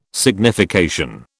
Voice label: "signification", British